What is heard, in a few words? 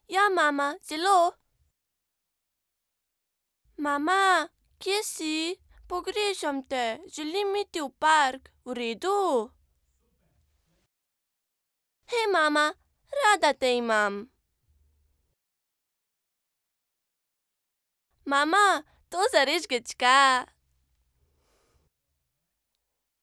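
slovenian female character; voice demos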